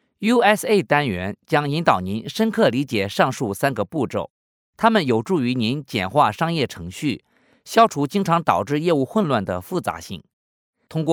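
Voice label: Mandarin Chinese, male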